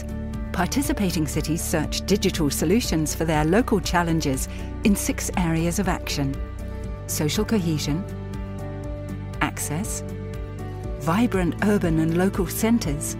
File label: image film; solutionsforcities; bmi; Muttersprache Englisch